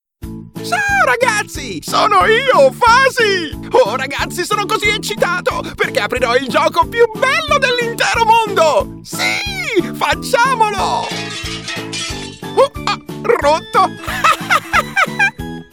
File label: italian cartoon animation